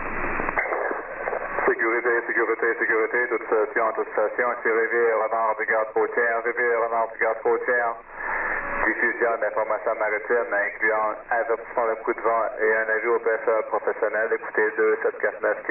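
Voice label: 2182 radio, 2182kHz, Canada, Marine Audio HF; VCG Riviere Au Renard, Canada Sep09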